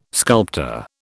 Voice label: "sculptor", British